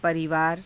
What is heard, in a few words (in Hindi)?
"parivaara", Hindi; [男]家族; परिवार